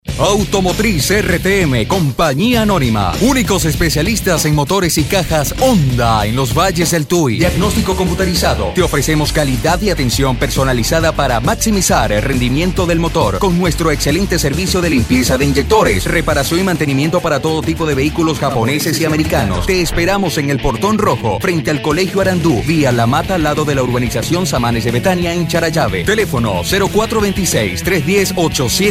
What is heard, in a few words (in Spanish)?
Publicidad Radial